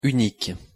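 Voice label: pronunciation "unique", French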